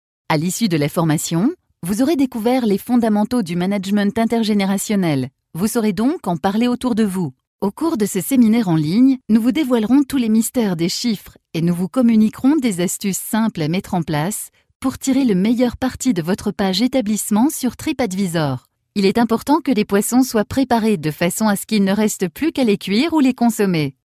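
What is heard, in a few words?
French, narration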